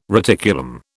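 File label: "reticulum", British English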